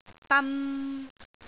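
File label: "dan3", Cantonese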